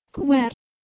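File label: "ghwer", Proto-Indo-European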